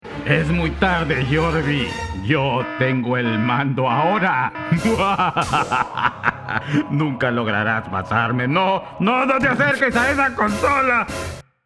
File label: robot